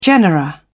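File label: "genera", British English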